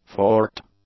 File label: "forked", English; adjectives